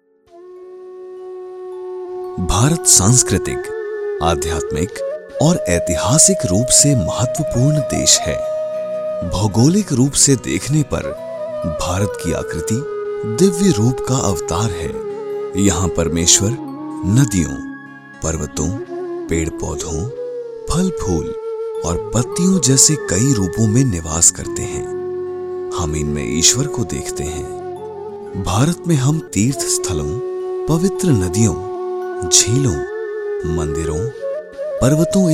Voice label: Hindi spiritual